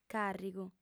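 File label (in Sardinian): "càrrigu", female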